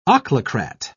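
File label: "ochlocrat", British English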